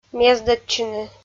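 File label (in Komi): "мездӧдчыны", Komi-Zyrian, female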